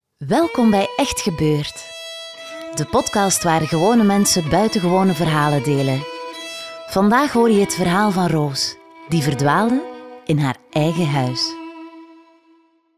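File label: flemish podcasts, female; flemish voice actors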